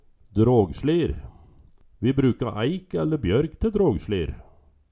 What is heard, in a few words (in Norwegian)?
"dragslir", Numedalsmål